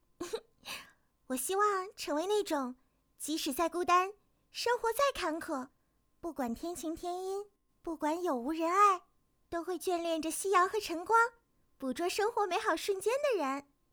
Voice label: 元气少女

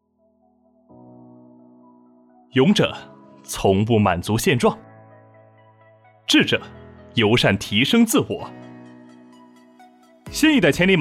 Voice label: 汽车广告

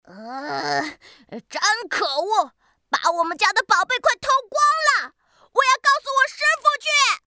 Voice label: 仙童男孩角色

